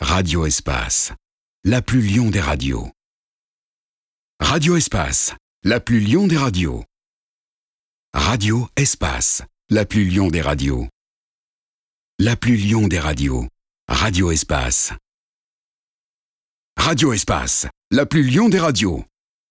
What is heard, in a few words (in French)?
Voix M